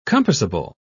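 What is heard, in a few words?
"compassable", British English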